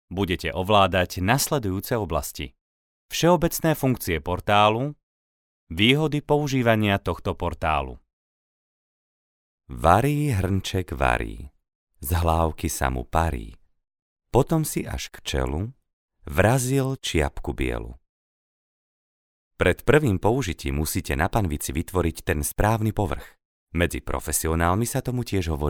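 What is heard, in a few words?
Slovak, male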